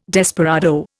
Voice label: "desperado", Indian

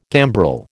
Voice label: "gambrel", American

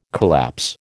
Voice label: "collapse", American

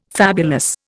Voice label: "fabulous", Indian

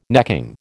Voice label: "necking", American

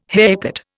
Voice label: "haircut", Indian

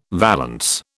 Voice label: "valance", British

